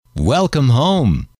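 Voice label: welcome home, male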